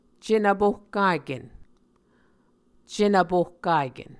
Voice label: "cenvpohkaken", Muscogee; Mvskoke Words with Voicing Consonants; Onvkv Ohhvyayices

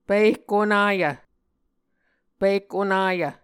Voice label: "pihk onayv", Muscogee

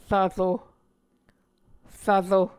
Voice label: "rvro", Muscogee

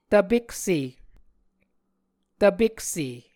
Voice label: "tvpekse", Muscogee

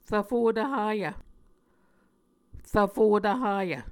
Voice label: "rvfo tvhayv", muscogee

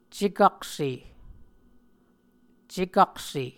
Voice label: "cekakse", Muscogee; Mvskoke Words with Voicing Consonants; Onvkv Ohhvyayices

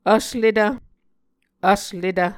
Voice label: "vsletv", Muscogee

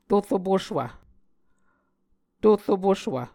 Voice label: "tor opuswv", Muscogee

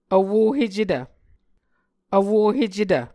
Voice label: "vwohecetv", Muscogee

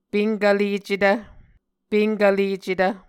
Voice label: "penkvlecetv", Muscogee

